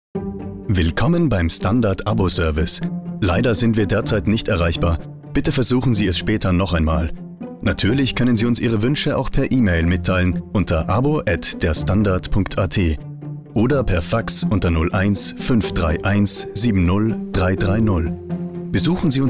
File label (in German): Standard Telband